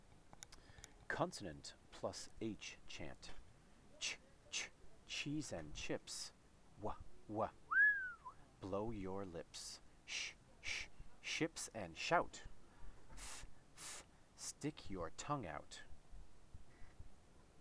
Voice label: h chant; The Alphabet Chant h